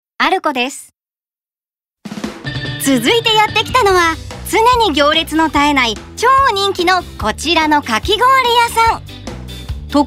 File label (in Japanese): バラエティー